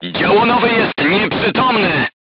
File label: voice message gunlayer contused, crew tanks polish